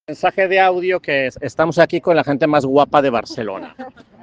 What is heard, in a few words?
Voice message